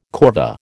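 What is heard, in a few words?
"corvée", American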